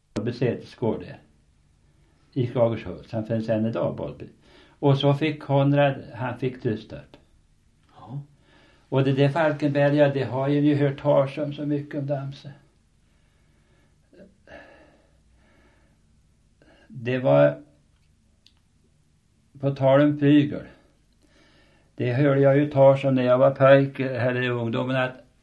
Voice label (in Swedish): Viby Hardemo, dialekter; Svenska sagor; Folkminnen